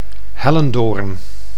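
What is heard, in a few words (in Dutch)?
"Hellendoorn", Dutch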